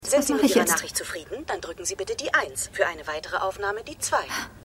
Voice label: Mailboxstimme; Suits

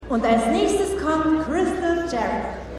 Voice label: Ansagerin

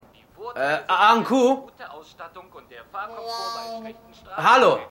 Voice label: TVSprecher; AusgerechnetAlaska

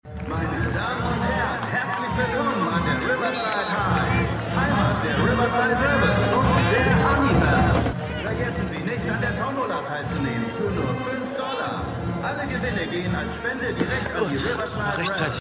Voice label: Stadionsprecher

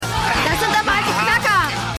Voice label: Junge; Keine Gnade Fuer Dad

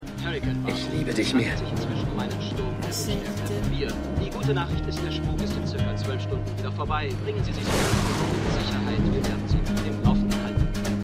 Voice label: TV Sprecher; New Amsterdam

